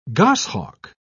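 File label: "goshawk", English, British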